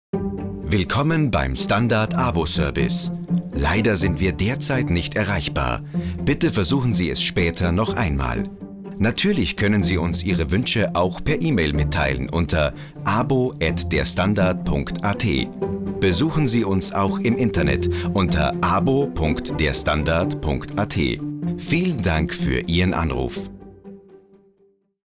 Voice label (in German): anrufbeantworter